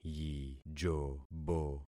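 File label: "yijobo", concatenated syllables; Affixing experiment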